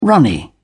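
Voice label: "runny", British English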